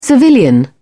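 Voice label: "civilian", British English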